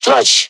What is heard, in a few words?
flush, combine soldier vo; npc